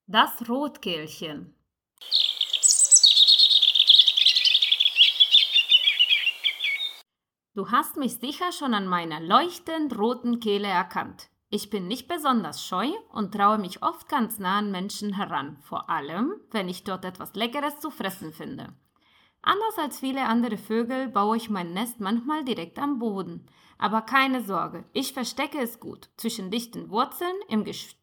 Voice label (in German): Vorlesetext Steckbrief Rotkehlchen, kinder; Du hast mich sicher schon an meiner leuchtend roten Kehle erkannt.; vogelstimmen; Das Rotkehlchen